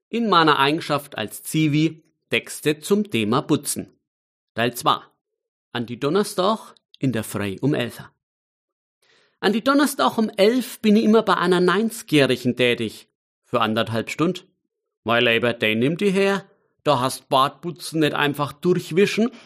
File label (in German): Mundart